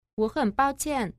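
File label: wo hen bao qian, Chinese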